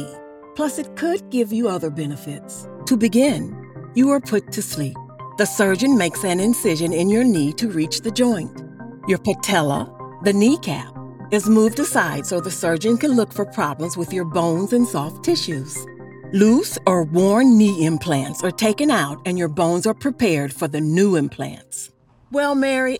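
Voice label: Medical Narration, Voice Over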